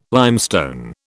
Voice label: "limestone", British

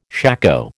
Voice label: "shako", American

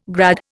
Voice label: "grad", Indian

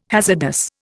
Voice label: "hazardous", Indian

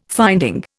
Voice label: "finding", Indian

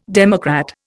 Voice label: "democrat", Indian

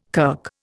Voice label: "kirk", Indian

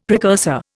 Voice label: "precursor", Indian; Dictionary

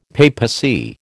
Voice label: "papacy", American

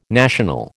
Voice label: "national", American

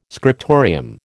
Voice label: "scriptorium", American

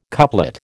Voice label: "couplet", American

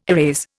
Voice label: "erase", Indian

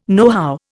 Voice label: "nohow", Indian

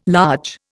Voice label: "large", Indian

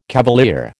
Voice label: "cavalier", American

